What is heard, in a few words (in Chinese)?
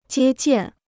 "接见", Chinese